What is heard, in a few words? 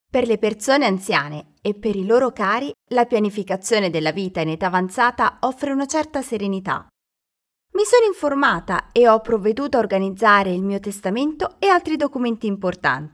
italian radio; ahrc preparing for later life